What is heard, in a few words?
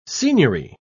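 "signory", English, British English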